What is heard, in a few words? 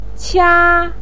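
"qia1", Mandarin pinyin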